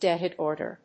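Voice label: "Dehydrator", English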